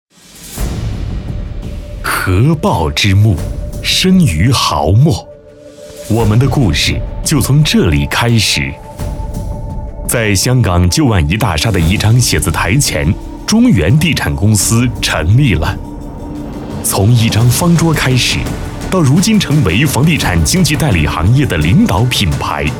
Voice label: 男, 广告; 中原地产; 房产宣传片案例

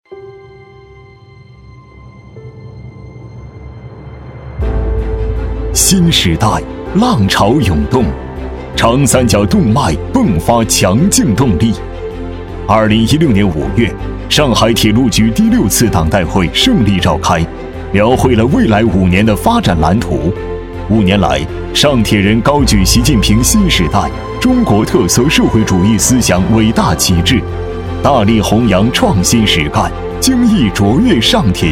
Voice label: 男,大气浑厚; 上海铁路局

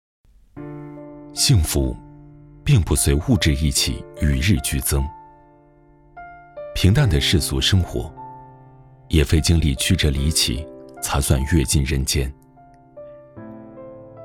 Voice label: 男 纪录片 文艺 讲述

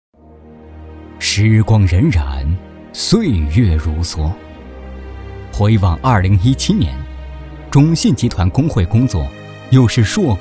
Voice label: 男 专题 工会; 中信集团工会

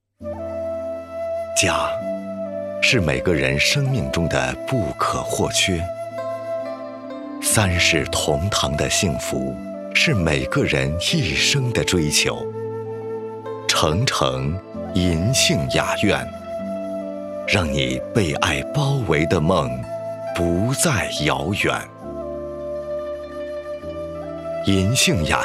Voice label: 广告 地产项目; 银杏雅苑

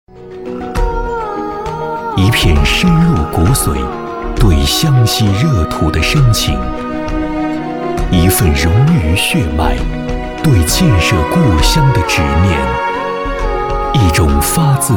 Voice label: 男 专题; 幸福湘西

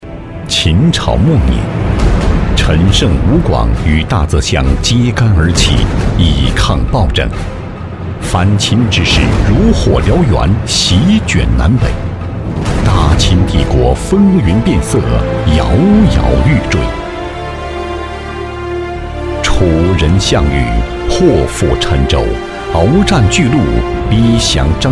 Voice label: 男 解说 气势力度

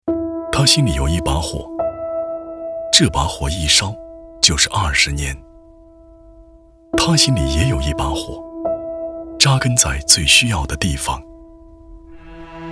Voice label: 男, 走心情感类; 力量

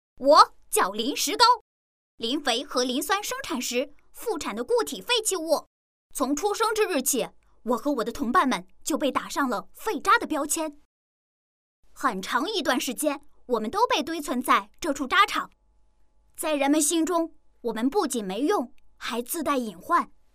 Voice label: 女 男童声 第一人称科普 磷石膏

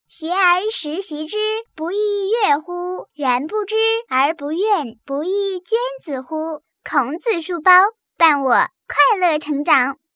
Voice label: 女, 童声; 书包

